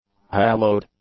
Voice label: "hallowed", English; adjectives

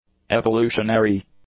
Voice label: "evolutionary", English; adjectives

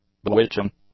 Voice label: "bewitching", English; adjectives

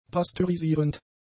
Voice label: "pasteurisierend", German; adjectives

